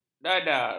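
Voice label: "dadar", Malay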